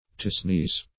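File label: "to sneeze", English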